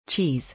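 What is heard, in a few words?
"cheese", English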